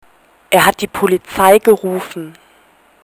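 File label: "rufen", German; er ruft die Polizei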